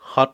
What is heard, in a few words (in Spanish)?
"perro", Cucapá